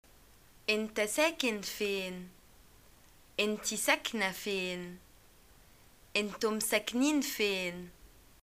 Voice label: where do u live, arabic